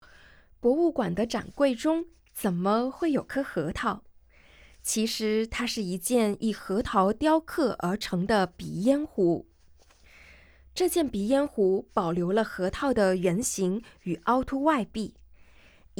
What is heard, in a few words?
Mandarin, audio guide